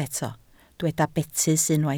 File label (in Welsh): "betys", Welsh